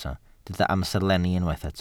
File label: "amserlenni", Welsh